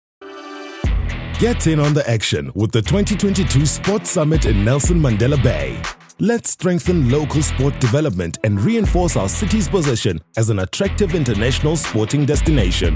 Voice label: ENG TV PROMO

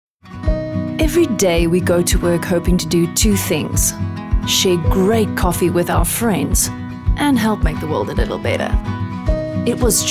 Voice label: CORP ENG

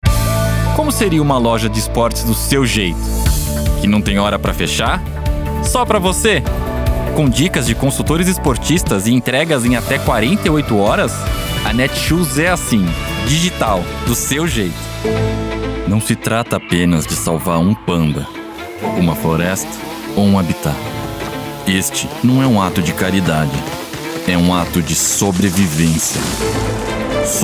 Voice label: Brazilian Voice Talent

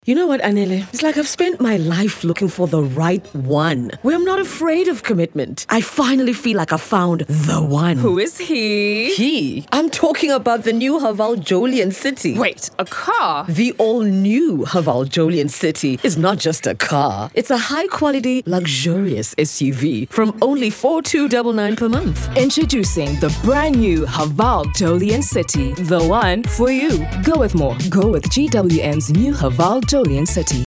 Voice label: Radio Ad; CITI

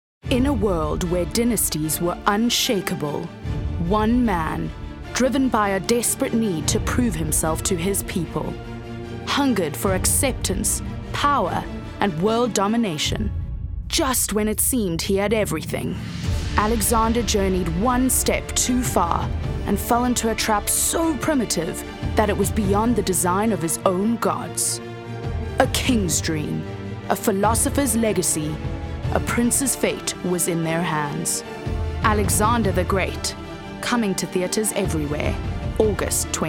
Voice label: Epic Historical

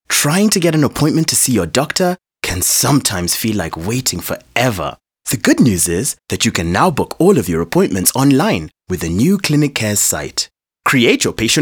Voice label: VO soft sell

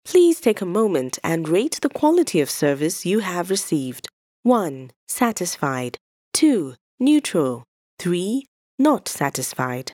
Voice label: IVR RATING THE CALL; NCBA; GSM

